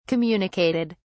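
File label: "communicated", British English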